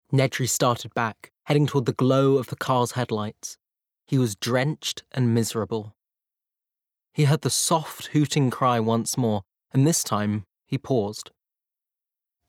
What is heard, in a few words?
Audiobook